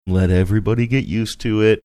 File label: funny